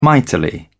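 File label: "mightily", British English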